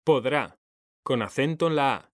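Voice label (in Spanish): "PODRÁ", Spanish; mekanta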